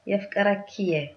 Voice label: i love you, tigrinya

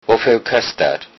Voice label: how much, lowsaxon

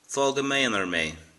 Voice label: leave me alone, irish

